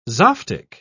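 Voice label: "zoftig", British English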